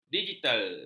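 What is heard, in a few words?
"digital", Malay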